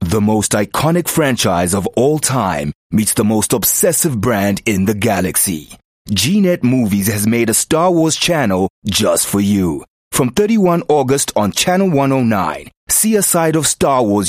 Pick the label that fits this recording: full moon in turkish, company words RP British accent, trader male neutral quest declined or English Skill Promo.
English Skill Promo